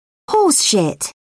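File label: "horseshit", British English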